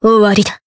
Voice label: finish, jp; suzette